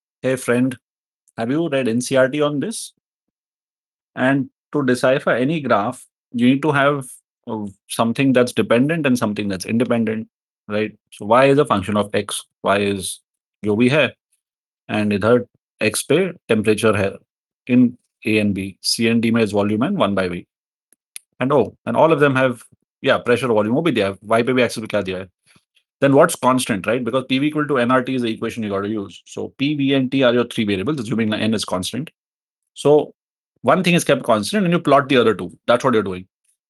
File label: voice message